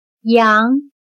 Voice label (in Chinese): "阳", chino